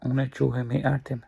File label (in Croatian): albanski; POP UP Zagreb